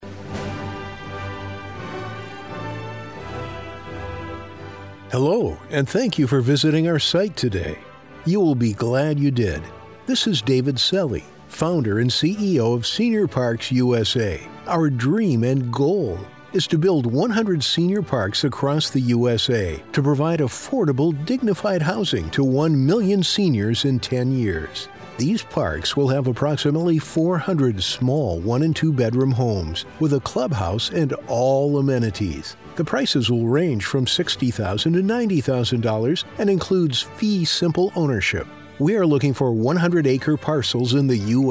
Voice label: voiceover; Senior Parks USA